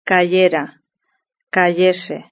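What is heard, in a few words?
"cayera", Spanish; verb-conjugator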